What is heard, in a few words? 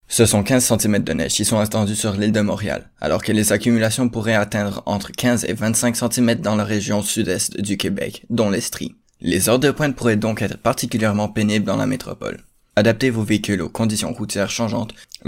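Male, French Canada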